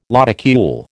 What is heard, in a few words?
"lodicule", American